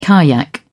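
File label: "kayak", British English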